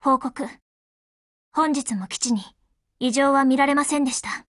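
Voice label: HELLO Japanese, Voice; GF Alarm; Fedorov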